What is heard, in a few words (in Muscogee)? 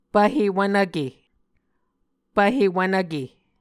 "pvhe wvnvke", Muscogee